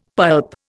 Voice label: "pulp", Indian; Dictionary